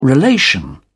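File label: "relation", British English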